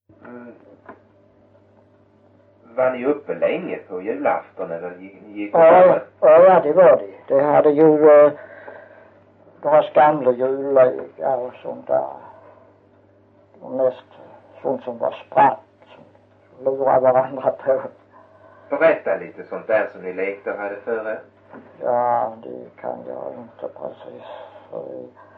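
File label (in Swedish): Ravlunda Skåne, dialekter; Folkminnen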